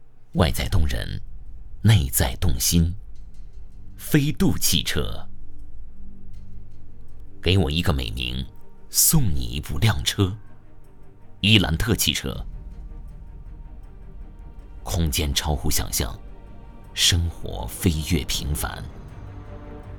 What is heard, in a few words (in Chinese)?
汽车 广告; 各类汽车